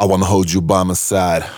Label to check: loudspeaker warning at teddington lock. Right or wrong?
Wrong